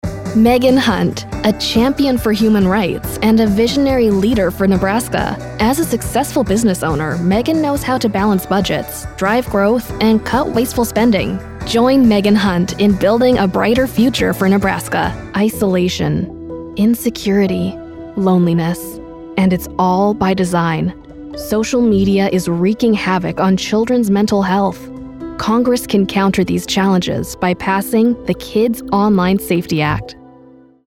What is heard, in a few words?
english american political ads